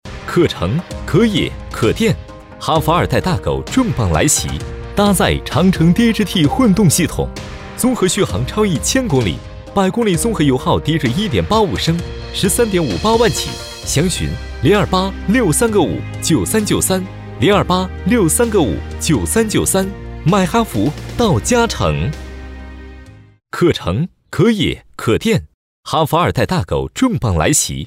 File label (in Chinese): 男 广告 汽车; 哈弗二代大狗